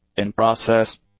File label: "in-process", English; adjectives